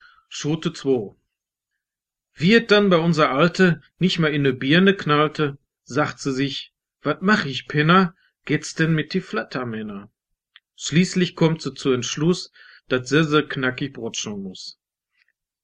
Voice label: dialekte Ruhrdeutsch

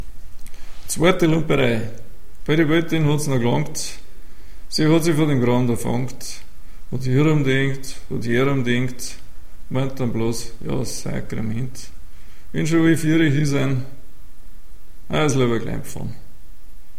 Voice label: dialekte Bairisch

